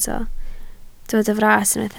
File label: "fras", Welsh